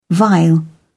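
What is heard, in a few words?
"vile", English, British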